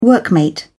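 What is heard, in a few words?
"workmate", British English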